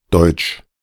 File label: german deutsch speech german